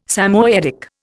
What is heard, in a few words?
"Samoyedic", Indian